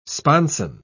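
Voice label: "sponson", British English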